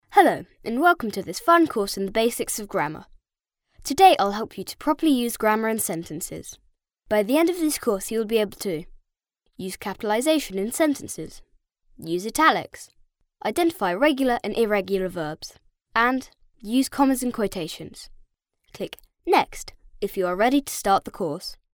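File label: English British, boy, child voice over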